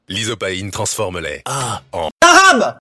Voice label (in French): ah en arabe; lisopaine